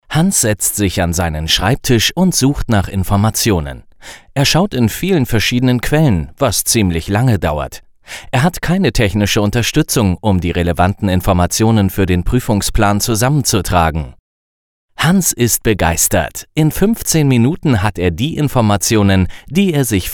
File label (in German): Erklaerfilm klassisch